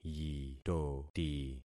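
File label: "yitoti", concatenated syllables; Affixing experiment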